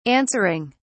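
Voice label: "answering", British English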